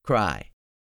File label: "cry", English; 3000 words